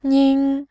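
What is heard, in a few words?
"nin", Mandarin, tone 1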